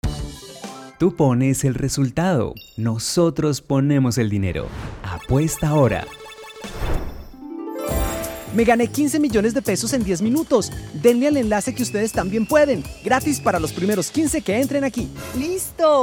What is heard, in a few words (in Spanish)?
radio publicidad adolescentes